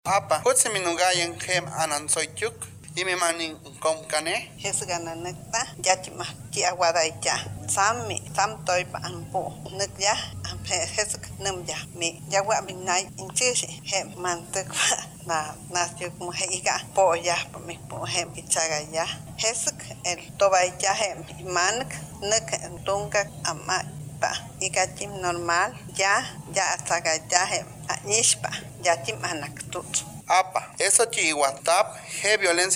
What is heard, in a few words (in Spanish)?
Lengua Popoluca; FI San Andres; Violencia Obstetrica